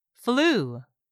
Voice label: "FLU", english; 3000 words